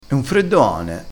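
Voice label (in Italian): "freddo ane", vocabolario dialettale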